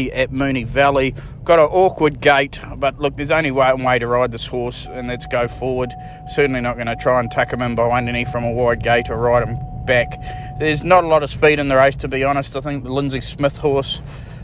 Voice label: voice note; Inverloch IRE